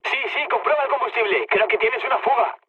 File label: tank damaged, mood low, speech; War Thunder